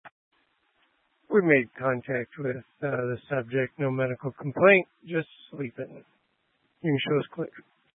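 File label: Fire Dispatch, Scanner audio recording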